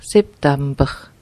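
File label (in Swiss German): "Septamber", Alsatian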